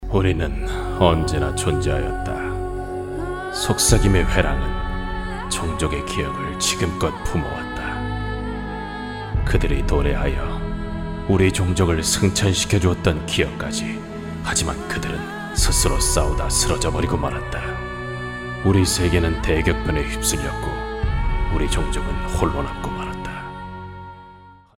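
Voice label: Korean, male, narration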